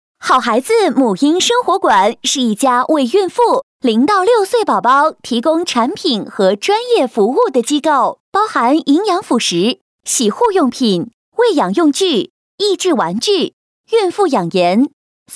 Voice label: 女 店面广告, 国语女声配音; 好孩子生活馆

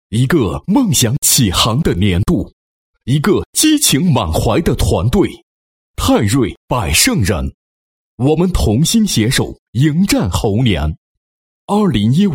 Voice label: 男 年会, 配音; 年会视频文字

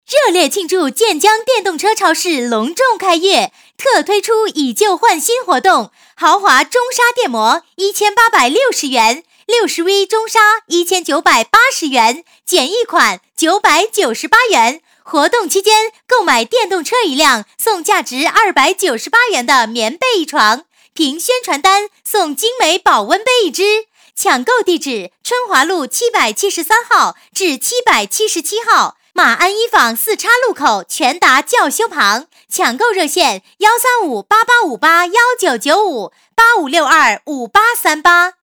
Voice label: 女 促销, 国语女声配音; 建江电动车

